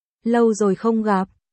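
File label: Vietnamese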